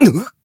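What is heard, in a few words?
damaged, Japanese; claude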